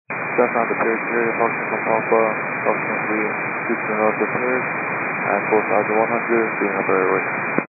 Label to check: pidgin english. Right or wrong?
Wrong